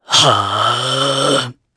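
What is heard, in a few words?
Casting, Japanese; kingsraid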